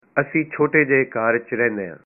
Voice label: we live in a small house, punjabi